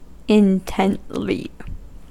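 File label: "intently", US English; pronunciations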